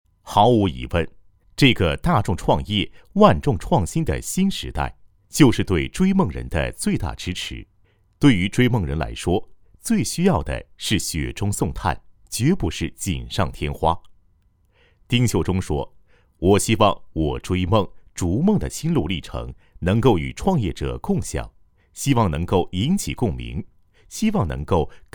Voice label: 男 专题 企业专访; cctv7

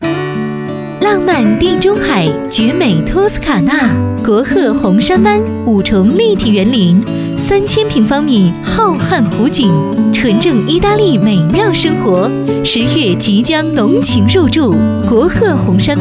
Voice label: 女 广告; 红珊湾

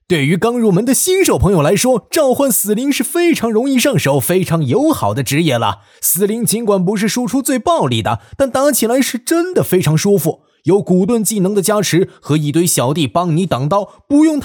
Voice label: 男 广告 信息流

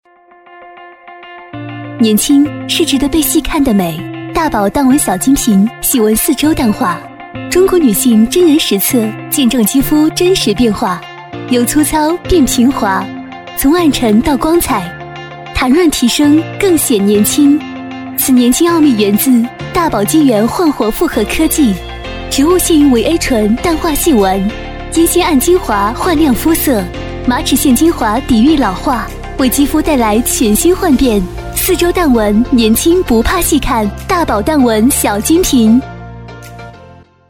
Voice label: 女 广告; 大宝淡纹小金瓶

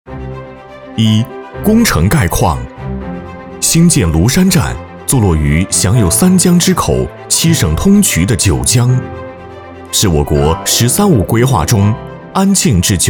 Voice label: 男 专题宣传 大气力度稳重 工程汇报

